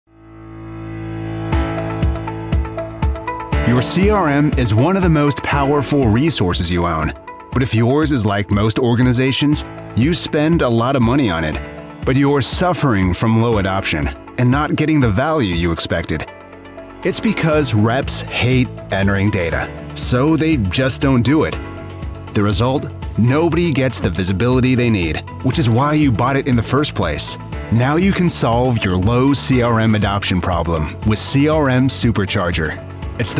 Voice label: male English USA North American accent CRM explainer; voices; devine voices